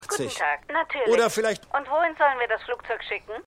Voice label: Telefonstimme

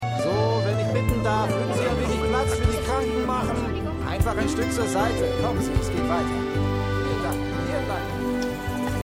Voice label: Spanischer Priester

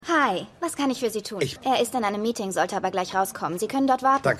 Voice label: Empfangsdame; God Friended Me

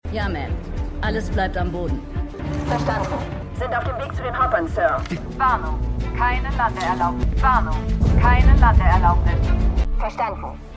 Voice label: Flugcontrollerin Wbl Zentrale Wbl Computerstimme, Stimmproben; For All Mankind

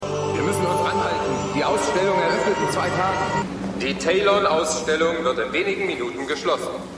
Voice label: Arbeiter Durchsage; Mission Erde Sie sind unter uns

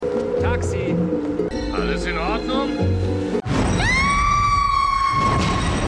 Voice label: Taxi Rufer Mann Schrei; PSI Factor Es geschieht jeden Tag; Eileen

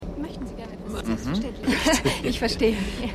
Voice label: Flugbegleiterin; Picket Fences Tatort Gartenzaun

